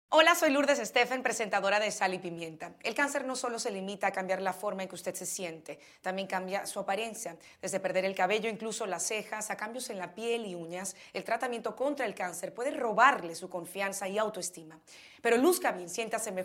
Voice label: spanish

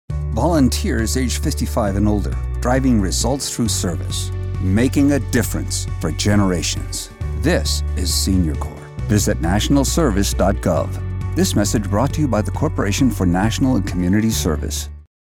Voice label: English; CNCS; Senior Corp

